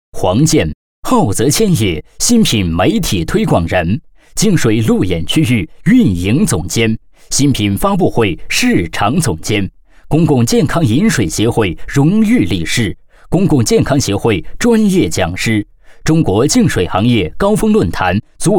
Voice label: 人物 介绍宣传, 国语男声配音; 黄某

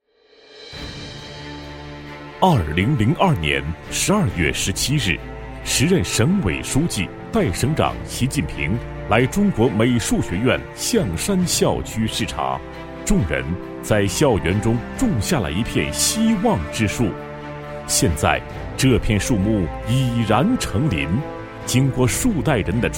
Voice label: 男 专题, 国语男声配音 磁性; 中国美院宣传

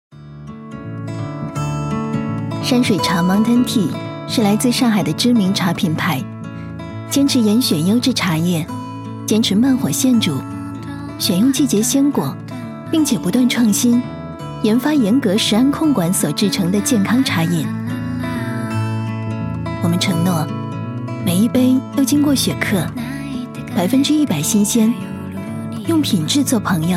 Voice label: 女 温柔版; 山水茶mountain-TEA

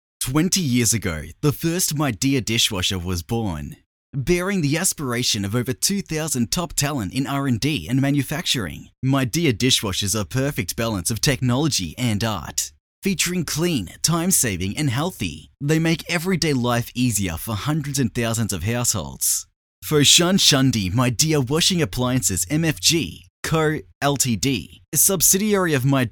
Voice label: 洗碗机工厂宣传片; 美的

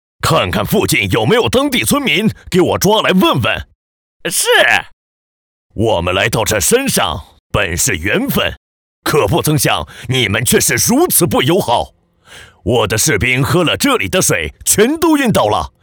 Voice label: 男, 角色样音, 强悍中年, 迟暮老年

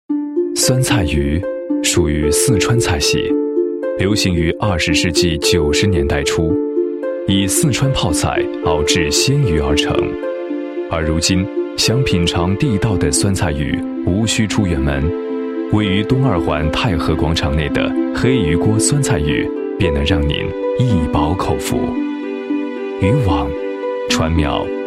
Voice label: 男 专题 宣传介绍; 黑鱼郭 我家酸菜鱼

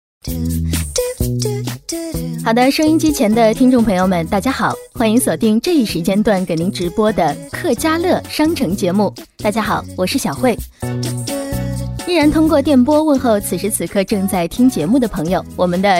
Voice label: 女 电台购物节目

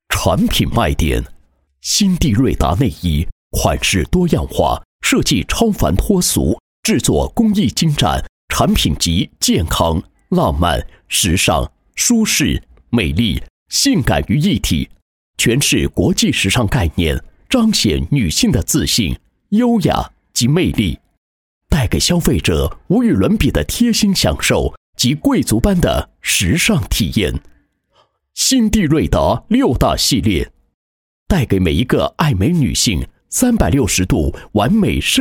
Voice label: 男 品牌宣传 宣传配音; 鑫帝瑞妲

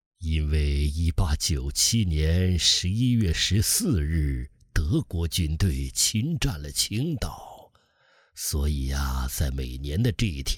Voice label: 男 角色 老人

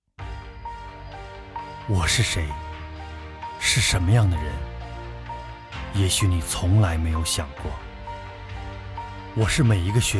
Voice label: 男 独白 我是谁自述

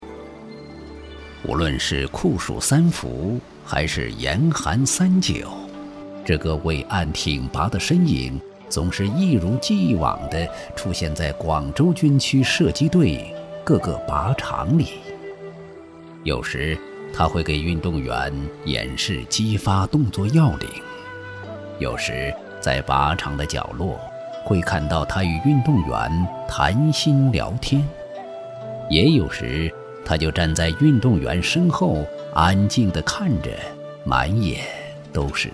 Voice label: 男 温婉 感性, 国语男声配音

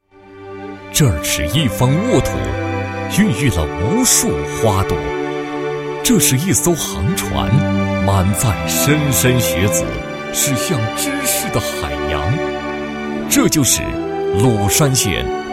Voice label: 男 学校专题; 前进中的鲁山第二十九中学

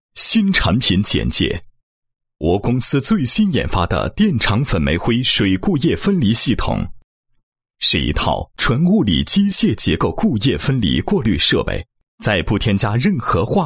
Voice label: 男 产品介绍 电厂粉煤灰水固液分离系统简介

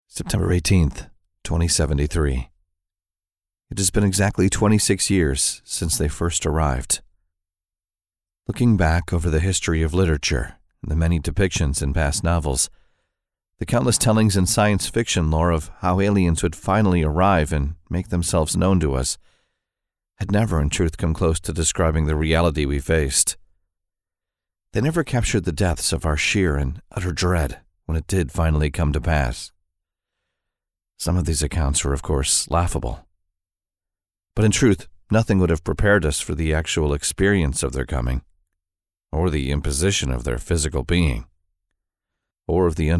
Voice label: 外籍男 有声读物 Audiobook; War of the Worlds

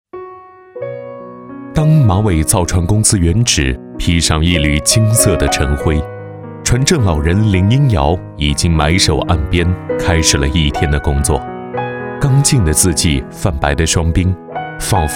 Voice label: 男 记录片 娓娓道来; 福建船政

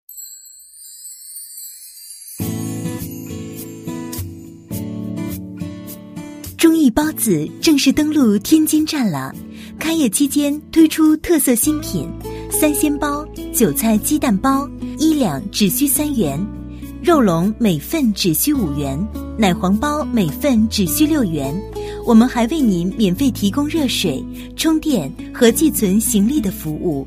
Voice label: 广告 舒缓稳重, 国语女声配音

